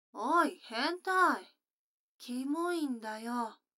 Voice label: 女の子台詞

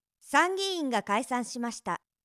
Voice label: 参議院が解散しました, ナレーション

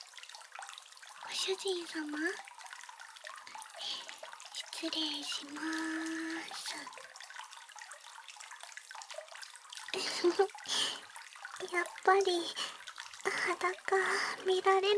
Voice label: 温泉でシャンプー（バイノーラルマイク収録 効果音あり大きめ）, ASMR

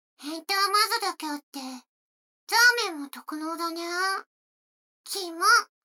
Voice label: 女の子台詞, ASMR

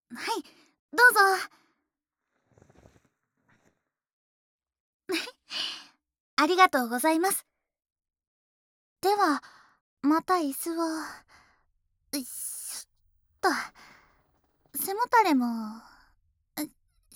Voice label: ASMR ヘッドスパ

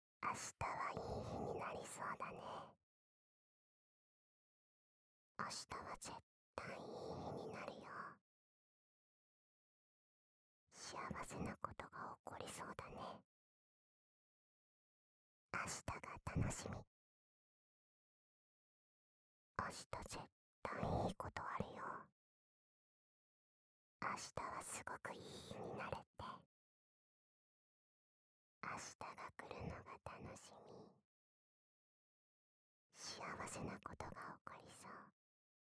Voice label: 囁き 左耳; 明るい未来

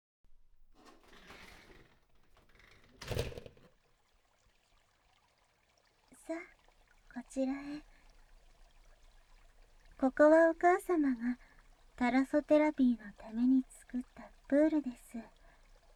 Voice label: ささやきハイドロテラピー

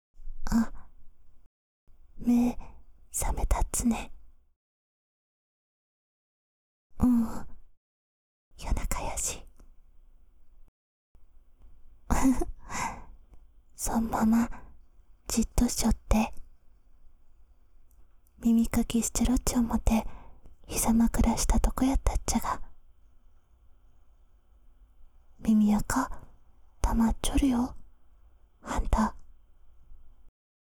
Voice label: 宮崎弁; SE無しバージョン; 田舎の古宿ン娘の夜伽

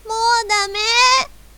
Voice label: "もうだめぇ", 戦闘不能

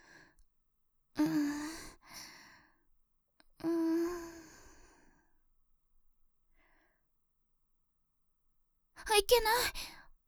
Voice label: 寝起き, ASMR

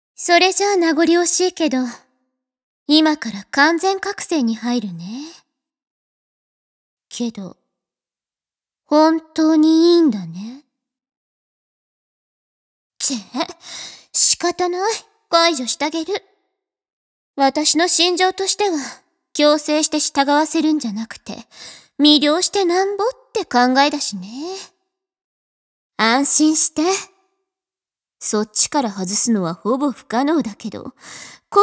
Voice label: 催眠ボールギャグ, ASMR; 単品完全覚醒コース

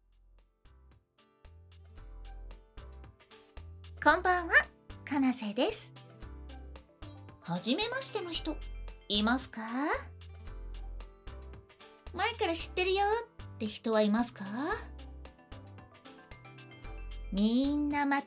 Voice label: フリートーク, ASMR

